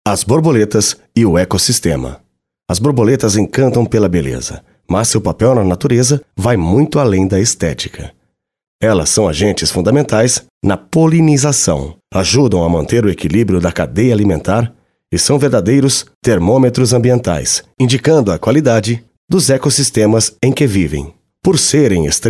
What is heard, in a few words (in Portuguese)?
audio guia, voz masculina; painel 5 educacao ambiental; exposicao casa das borboletas